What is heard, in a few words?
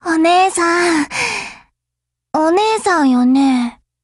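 build over, Japanese; HK21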